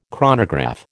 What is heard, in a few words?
"chronograph", American